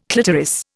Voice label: "clitoris", Indian

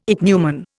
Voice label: "ichneumon", Indian

